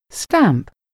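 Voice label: "stamp", English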